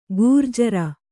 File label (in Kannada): "ಘೂರ್ಜರಿ", Kannada